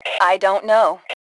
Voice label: Respond Dont Know, Female; T2 Female 01